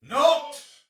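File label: See Player Soldier Male; Dialog; Barks; Battle; en; Sinecure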